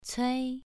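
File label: "cui" Mandarin, second tone, pronunciation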